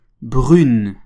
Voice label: "brune", French